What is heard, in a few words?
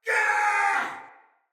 Battle Cry Soldier Male; Barks; Dialog; Sinecure Soldier Male A